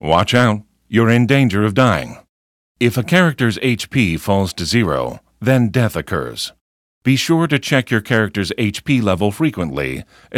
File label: tutorial voice; lineage2